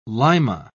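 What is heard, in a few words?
"lima", British English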